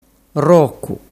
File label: "rocu", dialettu